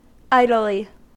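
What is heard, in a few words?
"idly", US English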